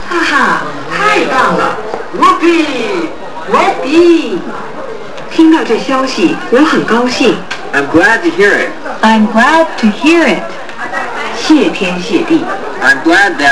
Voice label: english